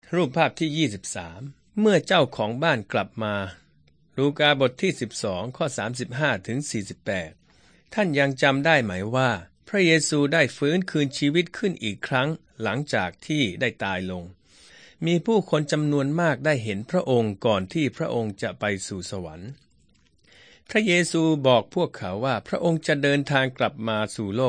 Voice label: thai central